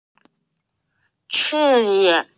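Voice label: "cure", Mandarin Chinese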